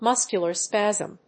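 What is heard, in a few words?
"muscular spasm", English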